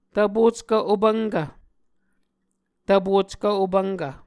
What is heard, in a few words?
"tvpockv opvnkv", Muscogee